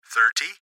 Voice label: Gps Voice, Male; Thirty; Laptop Speaker Adult Male; Recorded Voice; Blastwave